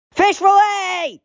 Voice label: high pitched fish fillet